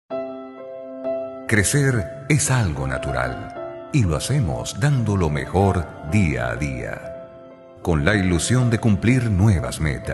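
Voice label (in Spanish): e-learning, Venezuela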